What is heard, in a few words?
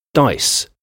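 "dice", English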